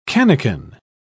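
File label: "cannikin", British English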